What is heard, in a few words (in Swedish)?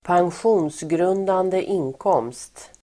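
"pensionsgrundande inkomst", Swedish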